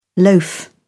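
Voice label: "loaf", British English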